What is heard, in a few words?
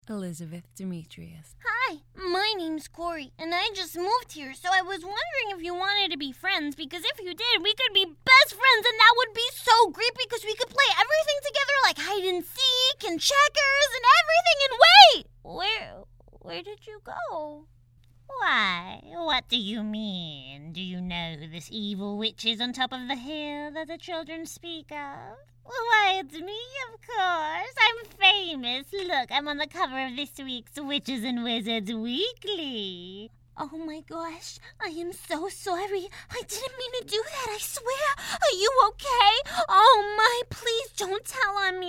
Voiceover, Character Animation